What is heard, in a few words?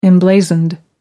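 "emblazoned", British English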